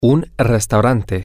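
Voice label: a Restaurant, Spanish, male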